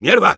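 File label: negative vocalization, Spanish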